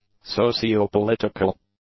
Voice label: "socio-political", english; adjectives